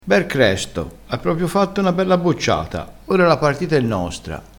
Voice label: "cresto", crespinese

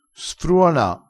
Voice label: "sfruona", vocabolario dialettale

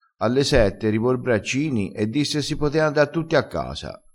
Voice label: "potea", crespinese

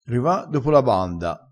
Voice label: "riva dopo", crespinese

